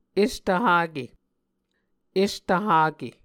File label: "estvhake", Muscogee